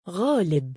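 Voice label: male "ghaalib", Arabic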